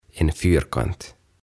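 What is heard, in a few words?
"En Fyrkant", Swedish; Neliö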